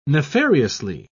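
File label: "nefariously", British English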